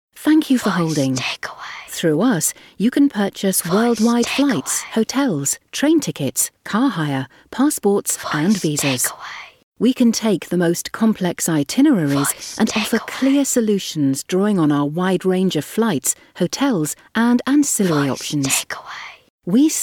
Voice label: Travel Agents Smooth Female